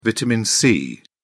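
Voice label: "vitamin c", British English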